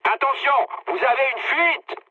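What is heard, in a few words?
damaged water, mood high, French; War Thunder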